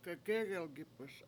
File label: sit up straight, Washo; gegEgelgIp1s